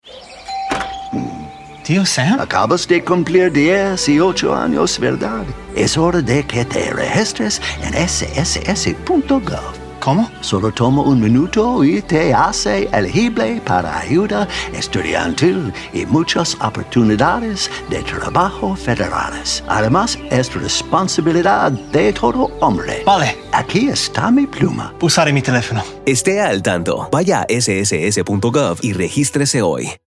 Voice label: radio mix, spanish; Reingold; UNCLE SAM